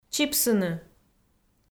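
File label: "чипсыны", Komi-Zyrian, female; kpv audio dict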